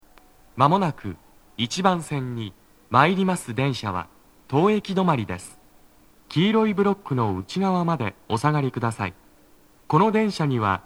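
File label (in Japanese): 北綾瀬 1番線 接近放送, 男声, 当駅止まり, 待合室TOAでの収録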